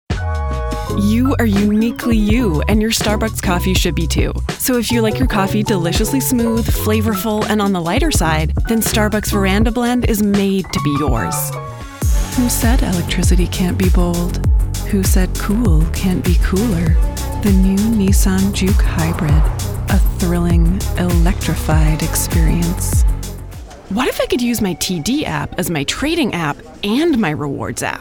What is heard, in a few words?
commercial voiceovers